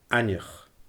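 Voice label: "aithneach", Scottish Gaelic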